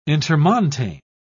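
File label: "intermontane", British English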